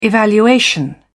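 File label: "evaluation", British English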